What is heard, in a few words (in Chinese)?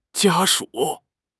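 "家属", Chinese; HSK6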